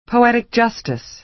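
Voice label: "poetic justice", American English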